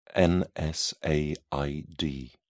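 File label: "nsaid", British English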